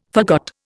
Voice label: "forgot", Indian